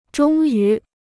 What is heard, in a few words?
"终于", Chinese